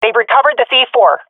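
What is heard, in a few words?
see bomb pickup, swat female; vo; Counter Strike Model Pack; CS:GO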